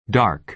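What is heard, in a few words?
"dark", American English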